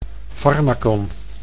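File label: "farmacon", Dutch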